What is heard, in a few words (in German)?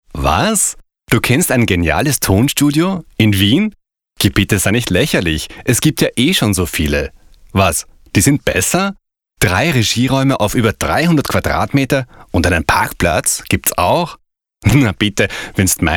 mit einem Lächeln im Tonfall